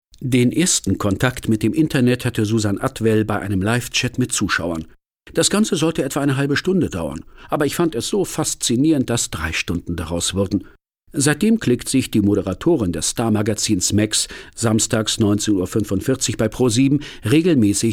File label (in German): Kommentar